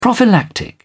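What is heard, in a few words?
"prophylactic", British English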